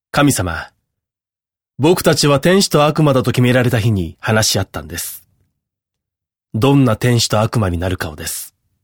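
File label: narration male natural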